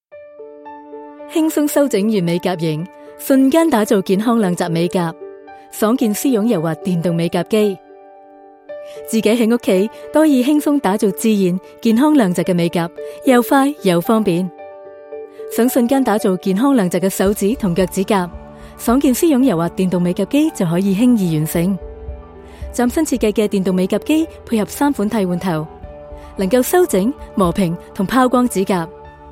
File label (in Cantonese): Commercial (Chinese Cantonese)